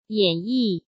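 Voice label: "演绎", Mandarin Chinese; chinese flashcards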